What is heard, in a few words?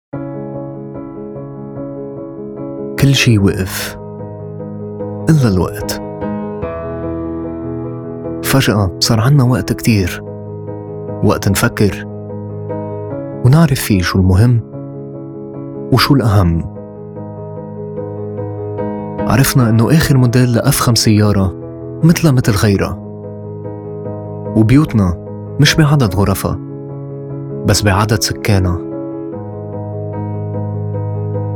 Lebanese Narrator; SAMBA Bank Manifesto